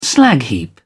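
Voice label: "slag heap", British English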